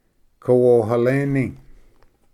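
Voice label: "kawohaleni", Cherokee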